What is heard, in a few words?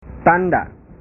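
"tanda", Indonesian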